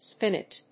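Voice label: "spinet", English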